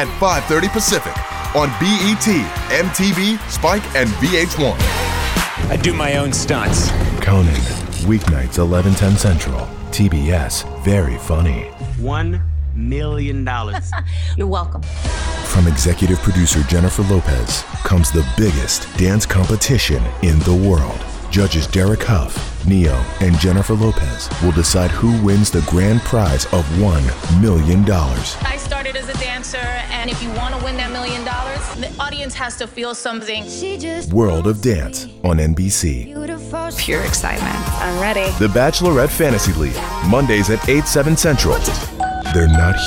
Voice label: promo male network